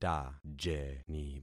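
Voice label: "tajeni", concatenated syllables; Affixing experiment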